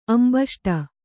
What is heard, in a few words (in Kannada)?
"ಅಂಬಷ್ಠ", kannada